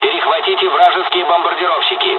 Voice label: dialogs russian; mission3; War Thunder